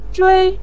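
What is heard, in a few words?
"zhui" neutral tone, Mandarin Chinese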